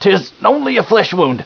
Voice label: hurt; barnaby